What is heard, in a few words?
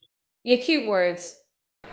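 Voice Acting Spanish Female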